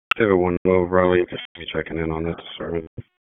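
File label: Police Dispatch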